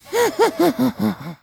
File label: Zombie